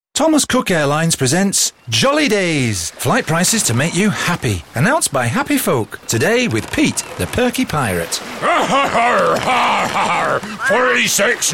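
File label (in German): British English, Fremdtake Energisch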